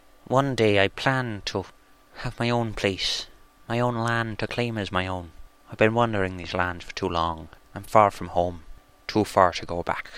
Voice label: creepy irish voice